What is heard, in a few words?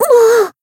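Voice damaged Japanese; pizzica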